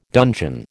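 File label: "dungeon", American English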